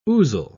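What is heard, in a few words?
"ousel", British English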